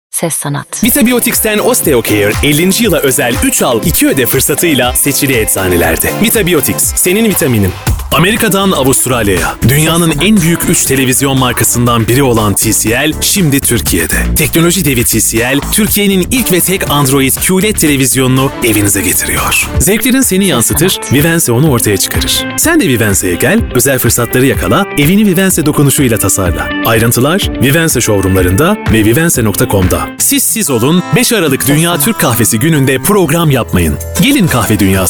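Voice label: Turkish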